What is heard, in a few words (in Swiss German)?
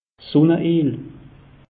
"sunne el", alsace; meteo